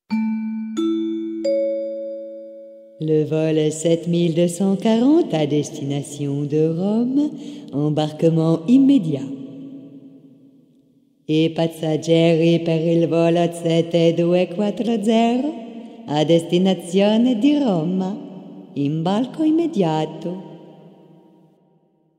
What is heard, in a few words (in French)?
annonce aeroport rome